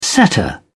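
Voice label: "setter", British English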